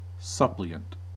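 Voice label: "suppliant", US English; pronunciations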